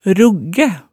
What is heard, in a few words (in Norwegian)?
"ruggæ", Hemsedal